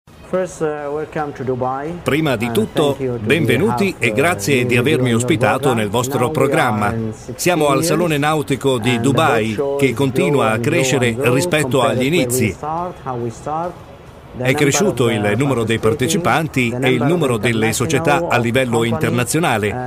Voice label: italian others